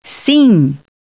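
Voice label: "yes", female; TALKNOW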